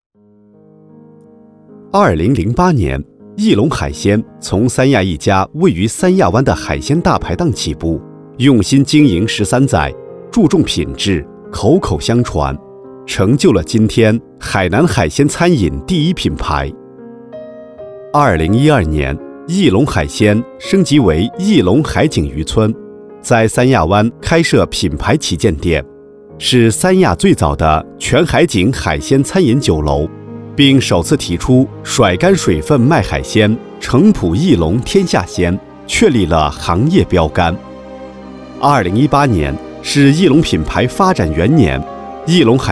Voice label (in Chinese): C男, 促销; 益龙海鲜